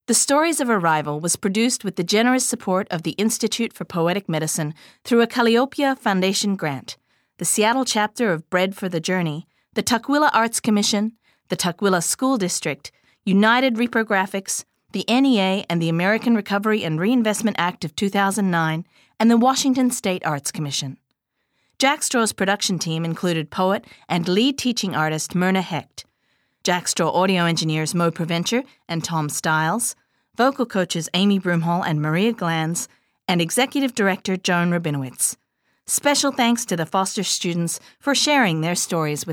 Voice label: Closing Narration